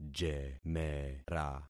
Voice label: "jemera", concat syllables; Affixing experiment

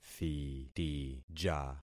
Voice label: "thitija", concat syllables; Affixing experiment

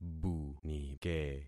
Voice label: "bunike", concat syllables; Affixing experiment

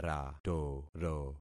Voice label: "ratoro", concat syllables; Affixing experiment

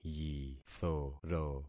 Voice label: "yithoro", concat syllables; Affixing experiment

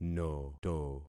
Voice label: "noto", concat syllables; Affixing experiment

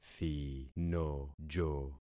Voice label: "thinojo", concat syllables; Affixing experiment

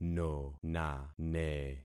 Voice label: "nonane", concat syllables; Affixing experiment

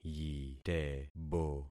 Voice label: "yitebo", concat syllables; Affixing experiment

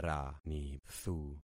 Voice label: "ranithu", concat syllables; Affixing experiment

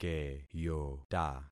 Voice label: "keyota", concat syllables; Affixing experiment

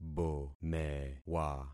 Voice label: "bomewa", concat syllables; Affixing experiment

